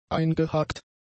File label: "eingehakt", German; adjectives